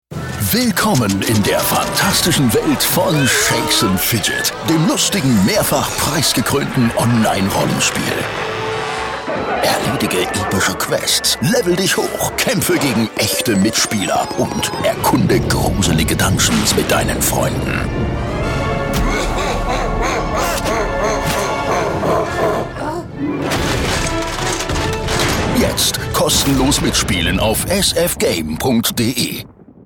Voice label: tvspot